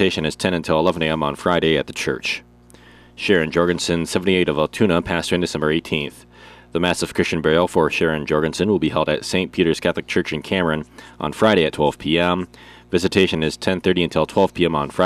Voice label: Funeral Announcements